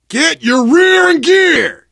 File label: emphatic go, survivor voice